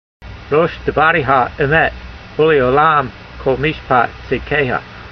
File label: voice, Psalm 119:160, hebrew language; Transliteration: rosh-devareha emet, oo'le'o'lam kol-meeshpat tseedkeyha